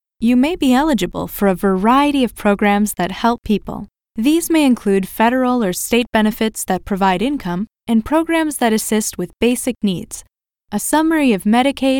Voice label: American Corporate; Voice-over demos